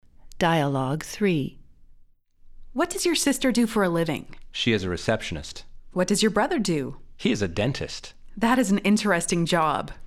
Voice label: Dialogue natural